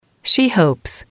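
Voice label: "she hopes", English, female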